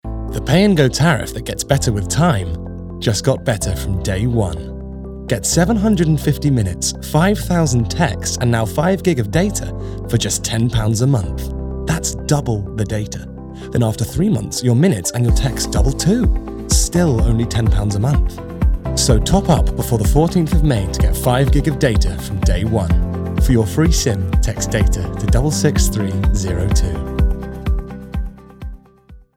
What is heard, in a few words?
commercial; Sim Package